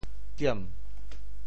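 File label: "kiem2", Teochew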